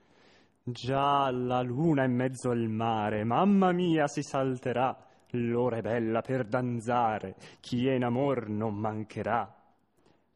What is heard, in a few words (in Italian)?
espressivo